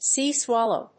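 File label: "sea swallow", English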